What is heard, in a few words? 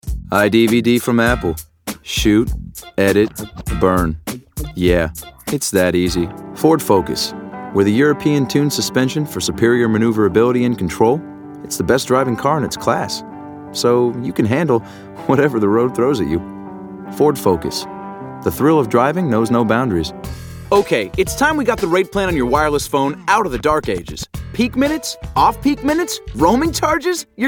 commercial